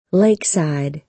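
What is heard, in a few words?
"lakeside", American English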